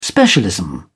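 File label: "specialism", British English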